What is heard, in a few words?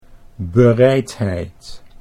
"bereidheid", Dutch